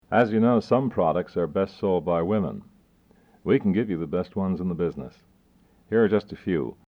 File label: Female Intro